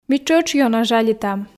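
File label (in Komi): ми тшӧтш ёна жалитам, Komi, female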